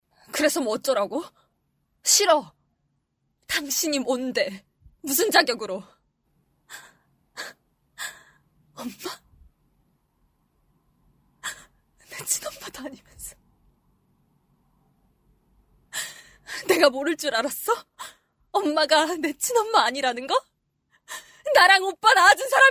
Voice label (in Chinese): 韩语女声 激烈感情风格